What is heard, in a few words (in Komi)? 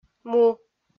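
"му", Komi, female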